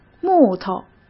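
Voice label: "木头", Mandarin Chinese; chinese flashcards; hsk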